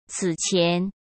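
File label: "此前", Mandarin Chinese; chinese flashcards; hsk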